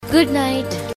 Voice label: Good NIght Telugu